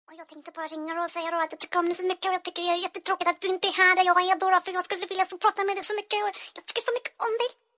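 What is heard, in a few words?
Funny Speech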